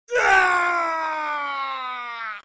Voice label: male "nuaaaa"